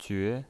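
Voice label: "jue", Mandarin Chinese, second tone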